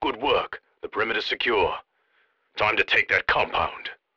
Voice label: wave clear, radio